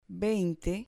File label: "veinte", Spanish